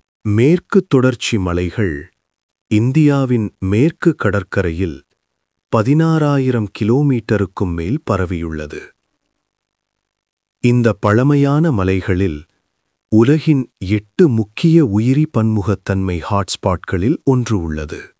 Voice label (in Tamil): documentary, Tamil